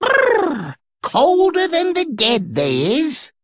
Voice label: Male Dredger After Freezing

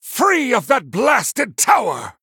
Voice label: Male Human Play

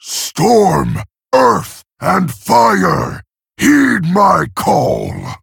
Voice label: Male Orc Hero Power

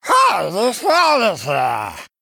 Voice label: Male Murloc

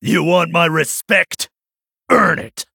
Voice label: male night elf, Play

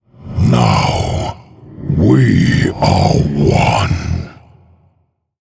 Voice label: Male Human In Game Victory Post Explosion; Lich King

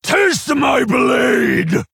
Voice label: Male Orc; Samuro

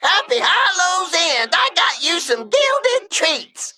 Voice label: Male Mech Happy Halloween

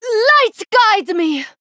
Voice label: Female Draenei Attack

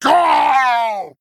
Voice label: Male Dire Fel Orc Death

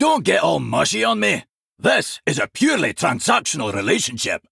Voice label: Male Dwarf; Tavish; Kurtrus

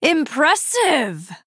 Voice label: Female Night Elf WOW

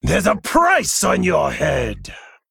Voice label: Female Worgen Play

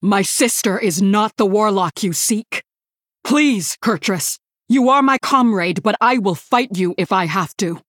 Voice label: Female Human; Cariel; Kurtrus

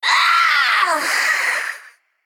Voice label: Female Naga Death

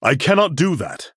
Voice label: Male Human ERROR GENERIC; Arthas

